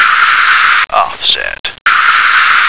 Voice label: "offset", American